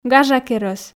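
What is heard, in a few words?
"гажакерӧс", Komi, female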